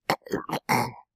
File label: Amanita Hurt